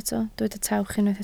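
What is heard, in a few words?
"tawch" Welsh, spoken word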